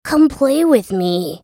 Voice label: Sad Mummy attack; Character Voices; English

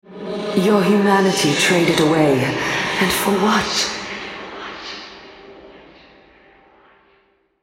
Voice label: Altar Capture, Female; Elise

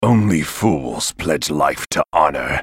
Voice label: move, en US; Talon

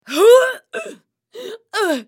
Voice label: dying, en US; Sejuani; Character Voices - English

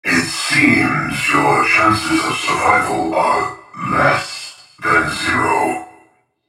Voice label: taunt, en US; Vel'Koz

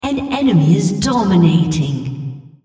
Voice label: female Killing Spree

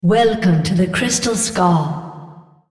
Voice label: female Welcome; Odin

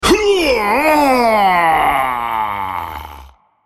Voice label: dying, en US; BloodKingVladimir

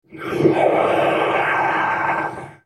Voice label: ultimate effort, en US; Urgot

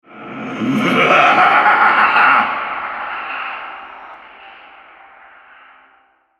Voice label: Altar Capture, Male

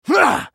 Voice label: spellcast effort, en US; Swain

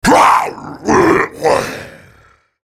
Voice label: dying, en US; Rengar

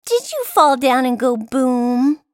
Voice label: taunt, en US; Tristana

